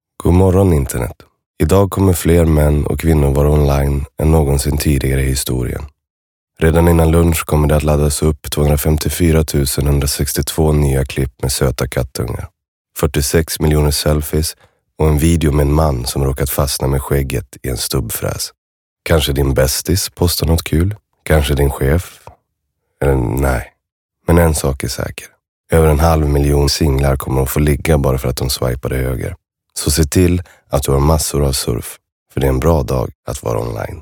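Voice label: Swedish, male, reklam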